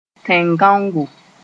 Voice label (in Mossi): "tẽn-gãongo", Moore